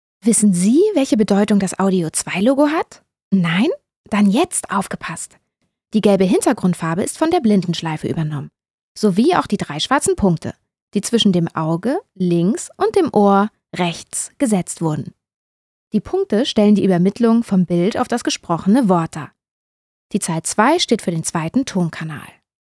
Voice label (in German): Hochdeutsch; sprechproben